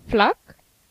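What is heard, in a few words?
level, Basque; gcompris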